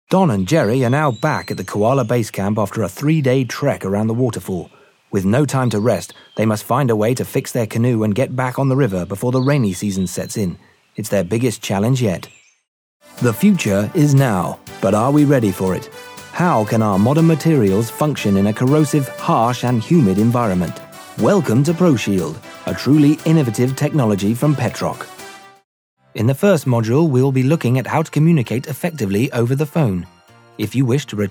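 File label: British English, narration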